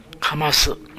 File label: "kamasu", minamiyamagata